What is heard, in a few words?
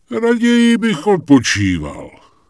Night Elf Male Standard NPC Greeting